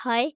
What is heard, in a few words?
Female